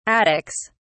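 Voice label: "attics", English, British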